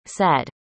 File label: "said", arabic; indonesian boy names